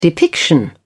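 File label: "depiction", British English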